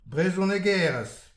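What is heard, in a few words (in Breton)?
"brezhonegerez", Breton; petit precis de prononciation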